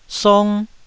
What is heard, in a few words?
"song1", Taiwanese